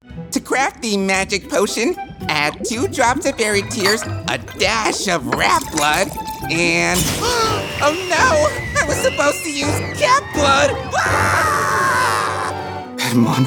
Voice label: animation